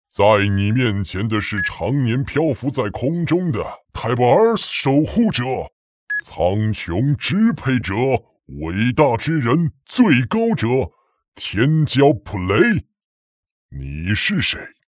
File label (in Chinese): 怪兽配音